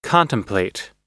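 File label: "contemplate", US English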